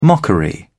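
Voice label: "mockery", British English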